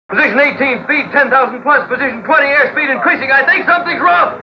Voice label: Something Is Wrong, Spoken, Industrial

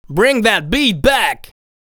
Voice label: "Bring That Beat Back", vocal phrases; Ultimate Vocals

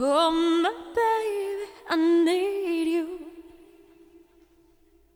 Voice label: Mixed Berry Jam Voice, 70s Analog Funk; Sonic Foundry; What it Is! 70s Analog Funk

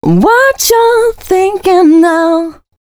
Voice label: female c# 135, short hooks, Dance Voice; Mutekki Media; Dance Ultra Voice VOL-1

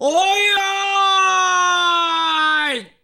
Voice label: "oi oi", shout; AKAI S-Series CD-ROM Sound Library VOL-6

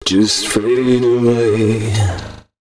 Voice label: male d# 130, short hooks, Dance Voice

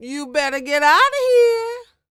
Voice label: DIALOG, R&B Dance, FEM. SPOKEN

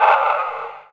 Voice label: VOICE, VOICE-FX

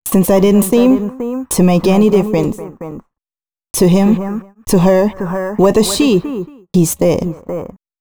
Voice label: female c#132bpm, spoken hooks

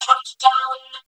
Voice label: COUNTDOWN, VOCODA SPEECH; Zero-G Ambient 1

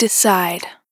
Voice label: "Decide", Vocal Samples, Words

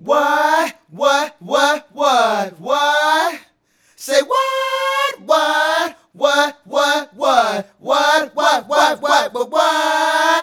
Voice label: Male Vocal

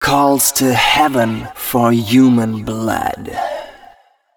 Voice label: male, Dance spoken hooks

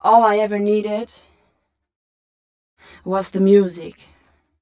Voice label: fem spo, Vocals

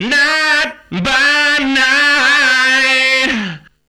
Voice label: Male FX Vocals; Houseworx

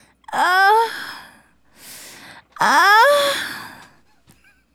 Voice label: Excited, Hip-Hop and RnB Vocals

